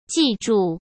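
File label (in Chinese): "记住", Mandarin; chinese-flashcards; hsk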